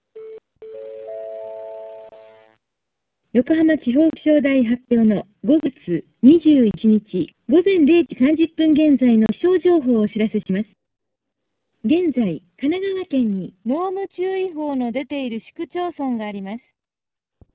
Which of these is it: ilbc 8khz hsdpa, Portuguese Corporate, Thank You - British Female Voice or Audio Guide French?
ilbc 8khz hsdpa